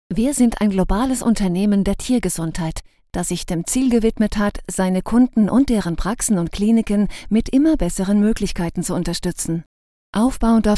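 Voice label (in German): Werbung, Neutral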